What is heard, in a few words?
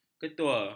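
"ketua", Malay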